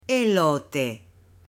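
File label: "elote", Spanish, female; AppLenguaje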